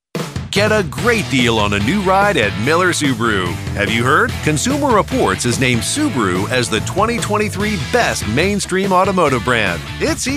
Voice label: Car Spots, Radio-TV Imaging